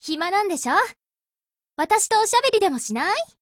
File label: LOADING JP; 人物：少女前线:APC9K